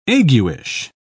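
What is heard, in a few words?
"aguish", British English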